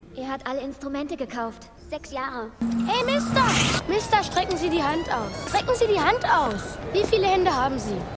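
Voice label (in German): Orchestermädchen Orchesterjunge Fahrradjunge; Stimmproben; Spurwechsel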